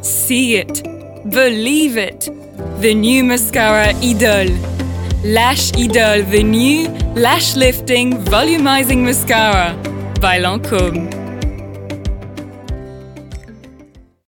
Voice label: female English with French accent commercial compilation